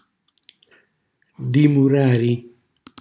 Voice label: "ddimurari", Calabrese